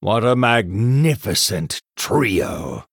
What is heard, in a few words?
Male Human Triple